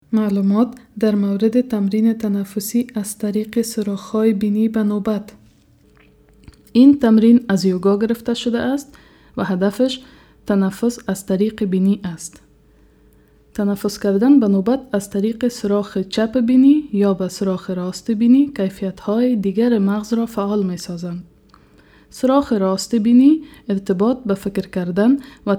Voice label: dari breathing